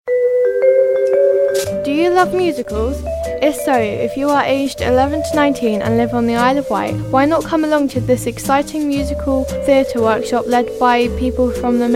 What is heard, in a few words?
waves commercial